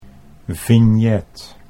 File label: "vignet", Dutch